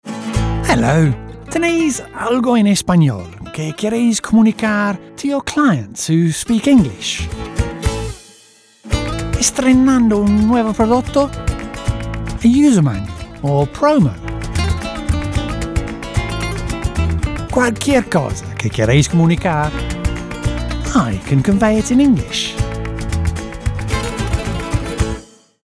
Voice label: ESP ENG, voice-over